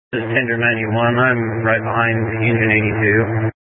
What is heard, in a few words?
Incident Tac, scanner; Incident Tac 1